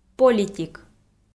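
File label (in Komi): "политик", Komi, female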